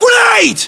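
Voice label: inform incoming grenade, battlechatter, British; localized english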